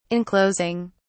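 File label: "enclosing", British English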